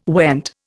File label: "went", Indian